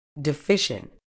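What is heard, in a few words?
"deficient", British English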